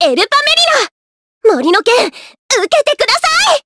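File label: Vox Skill Japanese; Dosarta; kingsraid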